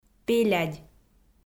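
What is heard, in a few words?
"пелядь", Komi, female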